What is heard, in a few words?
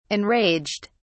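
"enraged", British English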